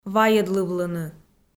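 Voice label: "вайӧдлывлыны", Komi, female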